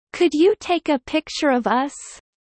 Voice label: low